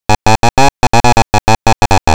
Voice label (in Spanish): El saxofón se toca con paciencia finita, Castellano masculino sin estudios superiores; Frases enunciativas; Bullas